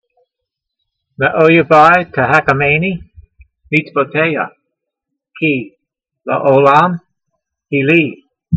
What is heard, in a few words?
voice, hebrew language; Psalm 119:98